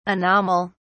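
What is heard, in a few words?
"anomal", British English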